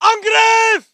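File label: voice german infantry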